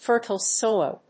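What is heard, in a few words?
"Fertile soil", English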